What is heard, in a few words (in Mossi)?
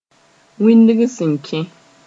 "wĩndg sẽn kẽ", moore